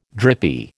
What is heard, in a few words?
"drippy", American